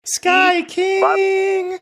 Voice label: sing songy; saying sky king